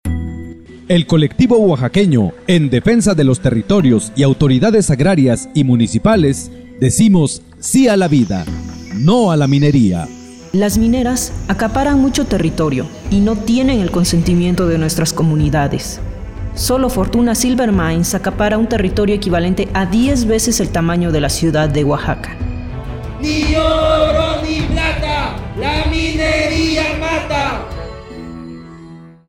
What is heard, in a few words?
SPOT